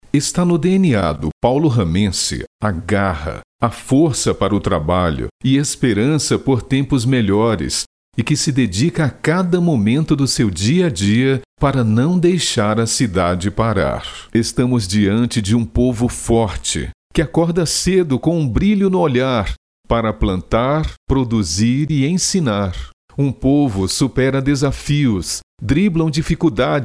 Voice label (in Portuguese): Institucional